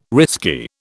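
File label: "risky", British English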